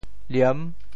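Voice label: "liem6", Teochew; 潮州; 数目字，二十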